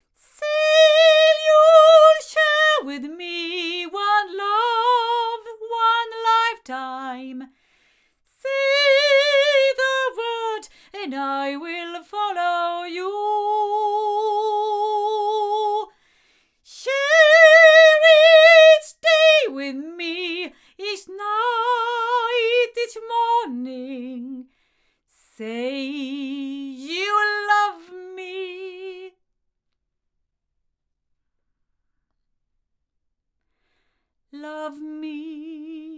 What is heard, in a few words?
female; SM6i omni; LineAudio